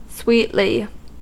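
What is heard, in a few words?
"sweetly", American English; pronunciations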